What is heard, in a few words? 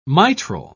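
"mitral", British English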